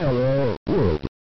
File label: voice 2bit dpcm; ファミコンチックPCM; 内部振幅4bitじゃ問題外だったので6bitに増やした